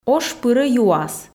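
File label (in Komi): ош пырӧ юас, Komi, female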